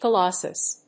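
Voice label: "colossus", English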